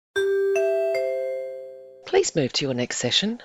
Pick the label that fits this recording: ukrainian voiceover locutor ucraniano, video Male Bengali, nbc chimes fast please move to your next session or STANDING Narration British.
nbc chimes fast please move to your next session